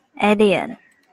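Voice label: "alien", Taiwanese, female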